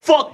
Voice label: "fuck", expletive